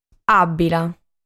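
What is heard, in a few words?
"Àbbila", Sardinian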